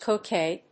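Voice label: "coquet", English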